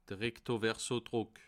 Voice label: "recto", alsace